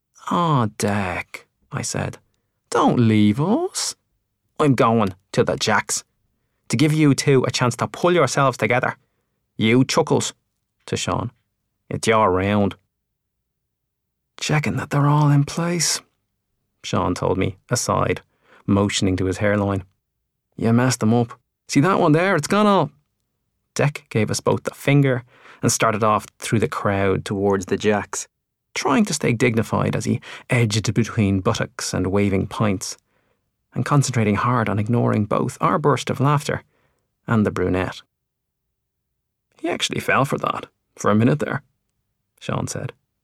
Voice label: narrative

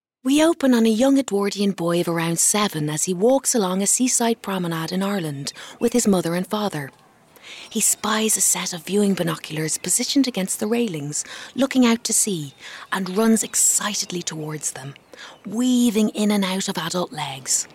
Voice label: Voice Narration

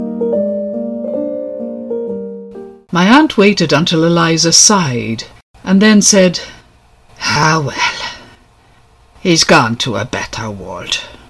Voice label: NARRATION; THE SISTERS by JAMES JOYCE

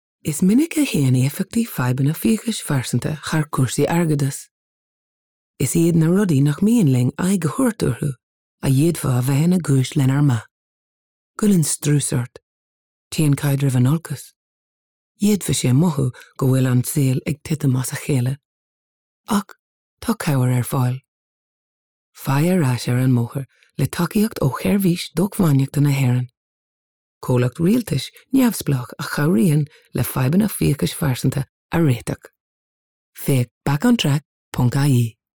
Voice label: Irish

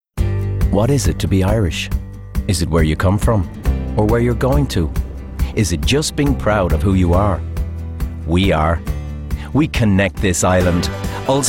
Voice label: VO ads

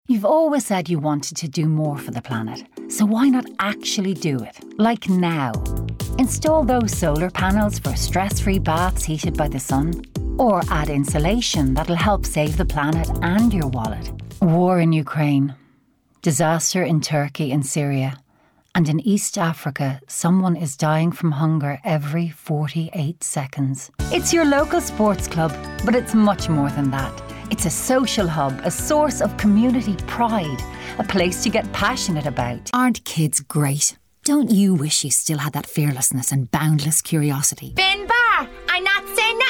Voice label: voice demo

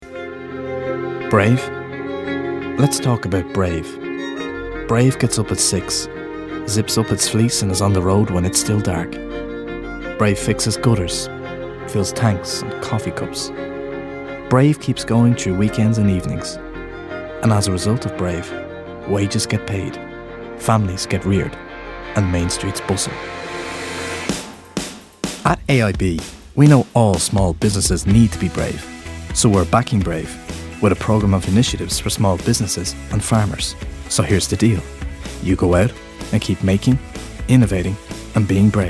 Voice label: VO Commercial; VO Commercial Demo

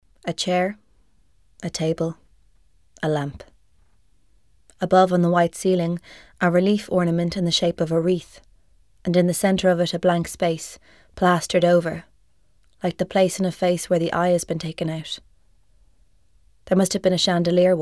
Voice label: narration

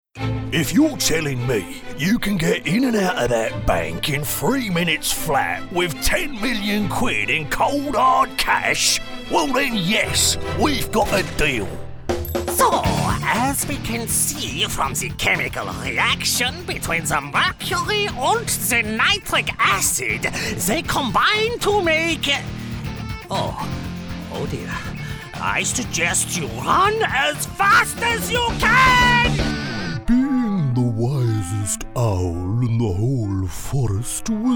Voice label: Animation

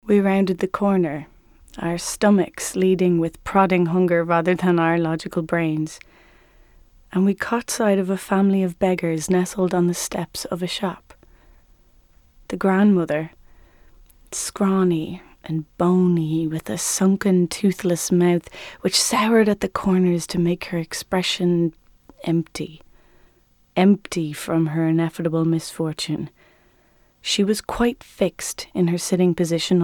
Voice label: narration

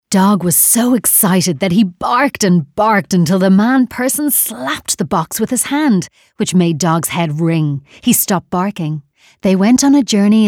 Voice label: Audiobook

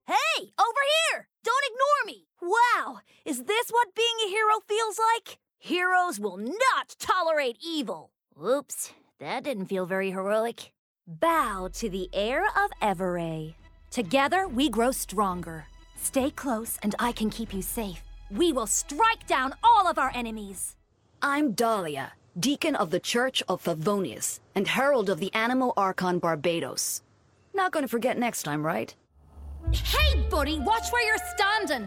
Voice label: Gaming, voice talent female

